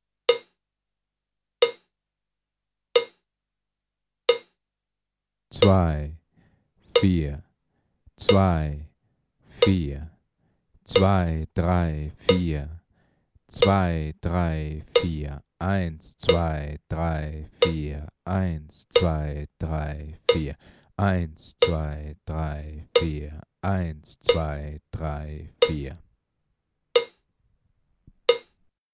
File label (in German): Einzaehlen beim 2-4-Metronom (deutsch)